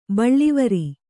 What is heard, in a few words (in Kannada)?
"ಬಳ್ಳಿವರಿ", Kannada